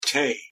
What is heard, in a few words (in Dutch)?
"tey", Manx; thee-in-andere-talen